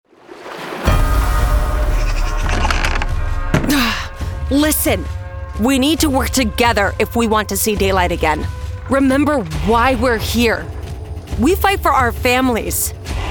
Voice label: strong leader; video games animation